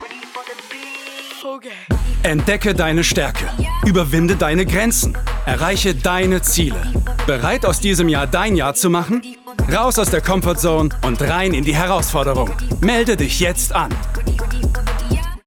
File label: GYM, Commercial